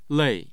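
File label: "lei", Mandarin Chinese, tone 4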